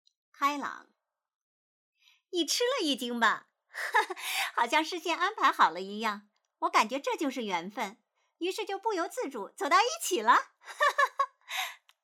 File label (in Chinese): 开朗